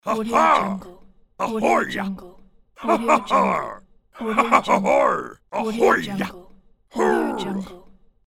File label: pirate voice